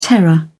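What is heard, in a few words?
"terror", English, British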